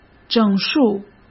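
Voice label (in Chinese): "整数", Mandarin Chinese; chinese-flashcards; hsk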